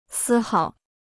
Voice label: "丝毫", Chinese

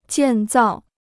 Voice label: "建造", Chinese

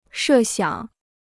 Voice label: "设想", Chinese

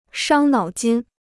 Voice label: "伤脑筋", Chinese

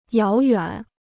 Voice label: "遥远", Chinese

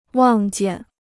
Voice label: "望见", Chinese

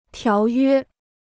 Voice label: "条约", Chinese

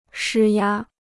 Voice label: "施压", Chinese

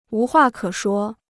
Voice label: "无话可说", Chinese

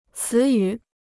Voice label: "词语", Chinese

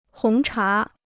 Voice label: "红茶", Chinese

